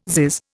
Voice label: "zizz", Indian